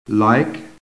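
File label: "like", American English; PHONETIC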